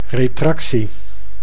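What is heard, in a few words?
"retractie", Dutch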